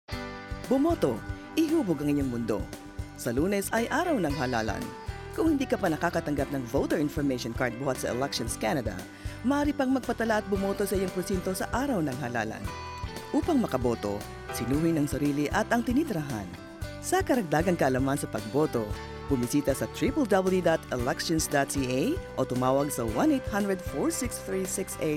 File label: election tagalog